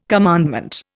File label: "commandment", Indian